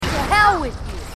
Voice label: marines female; h2dialogue